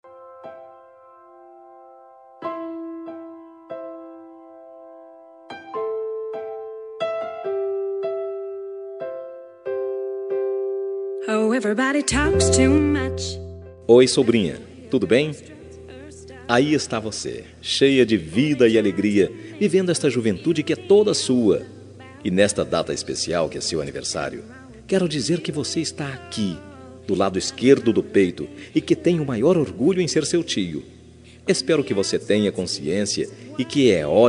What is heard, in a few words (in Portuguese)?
voz feminina; Aniversário de Sobrinha